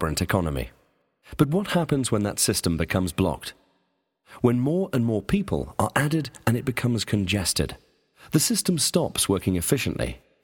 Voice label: corporate smooth

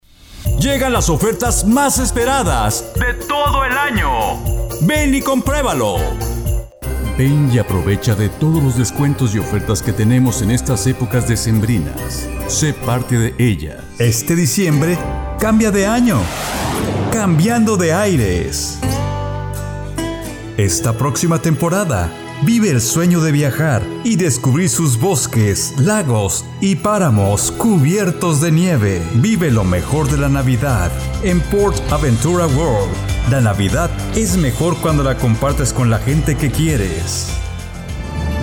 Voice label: Spanish

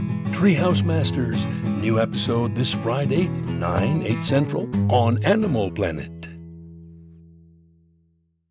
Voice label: Happy Promo; Treehouse Masters

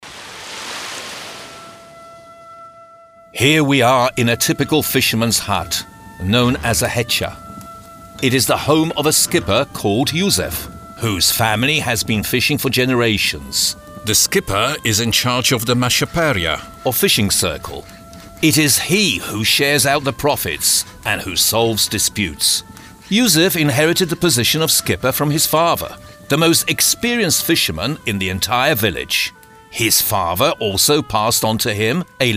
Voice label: Story

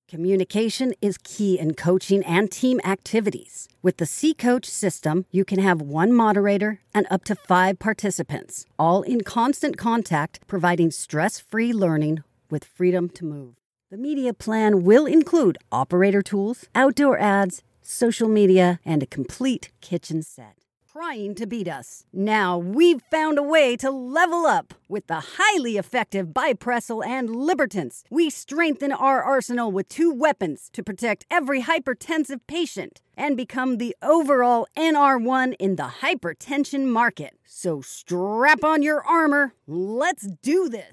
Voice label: dry

